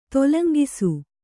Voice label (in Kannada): "ತೊಲಂಗಿಸು", Kannada